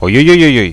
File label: ups, radio bot